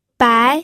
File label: "bai2", pinyin